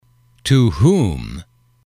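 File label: to whom, English, male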